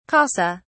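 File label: "casa", English, British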